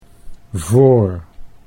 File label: "voor", Dutch